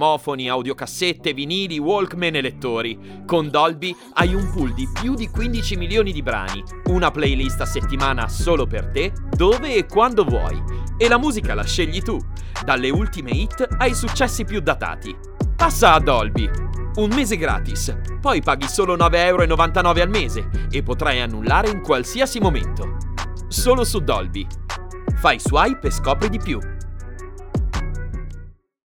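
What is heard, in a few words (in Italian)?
Social Adv, Native Italian Voice Over Artist; Benvenuto nel mio Home Studio!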